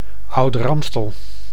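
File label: "Ouder-Amstel", Dutch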